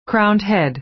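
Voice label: "crowned head", American English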